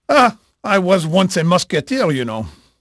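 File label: French male, voice; Pirates Of The Caribbean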